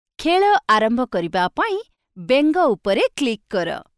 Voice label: click frog, Odiya